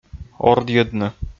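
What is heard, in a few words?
"ордйӧдны", Komi, male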